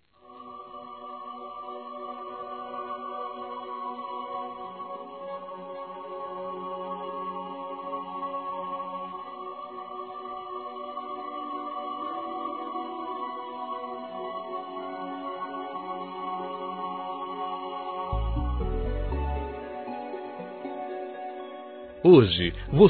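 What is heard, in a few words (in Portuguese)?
Romantica Masculino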